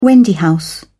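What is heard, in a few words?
"wendy house", British English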